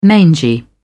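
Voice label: "mangy", British English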